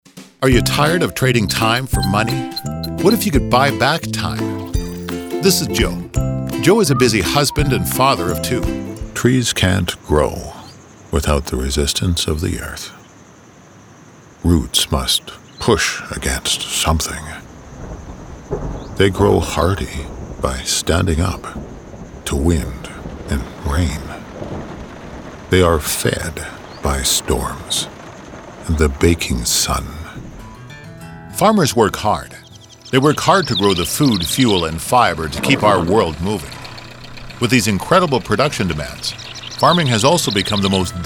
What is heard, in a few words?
EXPLAINER NARRATION